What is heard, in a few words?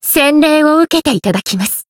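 Voice chant, Japanese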